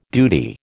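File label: "duty", American English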